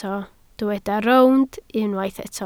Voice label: "rownd", Welsh